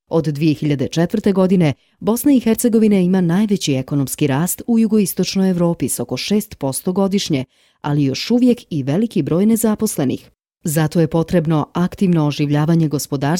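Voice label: Bosnian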